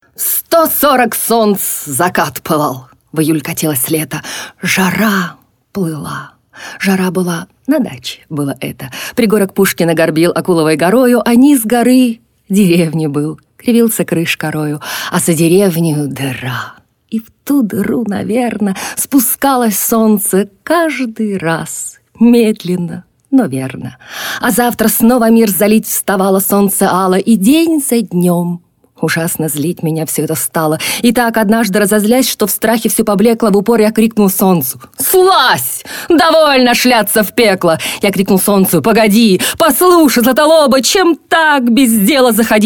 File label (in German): Russland W